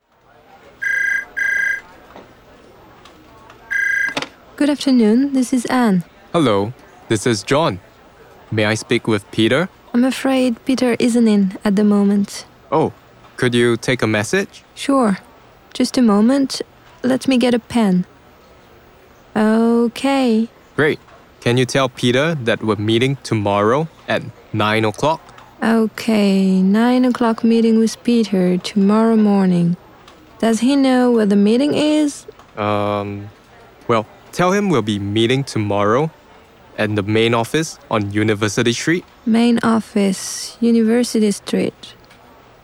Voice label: Phone conversation